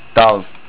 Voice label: Winter, estonian